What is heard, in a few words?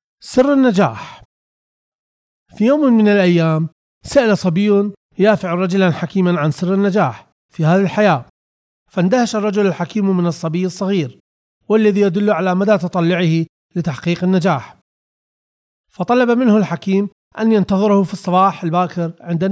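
Arabic male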